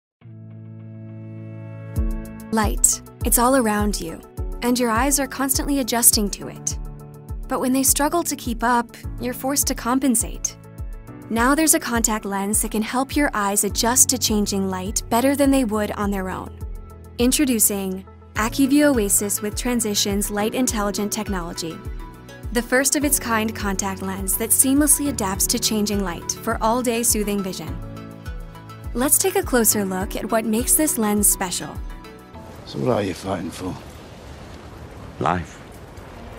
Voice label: Voiceover Narration Women; new york